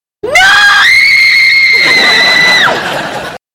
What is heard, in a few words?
screaming noooooooooo